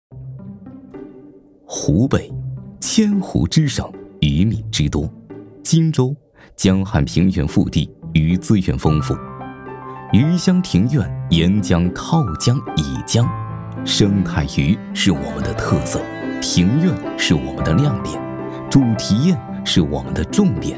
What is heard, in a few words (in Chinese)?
男国语, 舌尖体; 鱼之盛宴